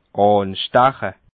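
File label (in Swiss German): "ansteche", Alsatian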